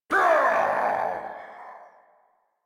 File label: angry, en; Warframe; Tyl Regor Boss; Efforts